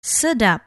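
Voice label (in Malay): "sedap", Malay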